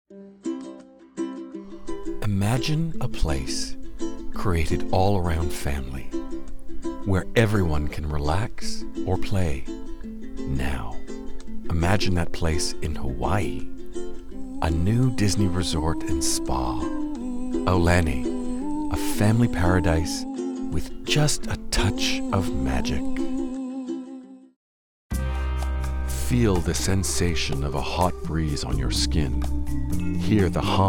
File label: Soft Sell, Voice Work